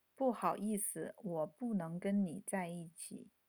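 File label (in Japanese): あなたとお付き合いできません, Japanese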